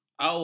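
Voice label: "aur", Malay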